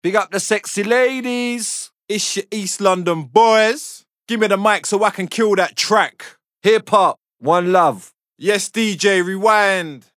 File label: MC Vocals MC Hits